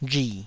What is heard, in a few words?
"rhi3", taiwanese